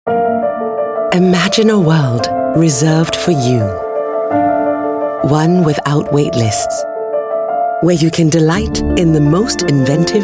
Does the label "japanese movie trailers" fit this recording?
No